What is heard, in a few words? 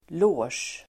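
"loge", Swedish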